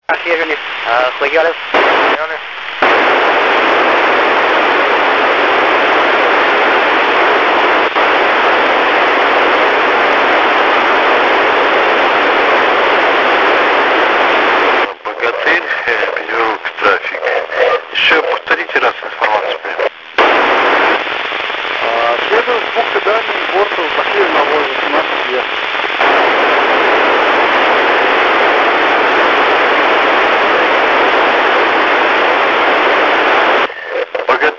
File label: milsat russian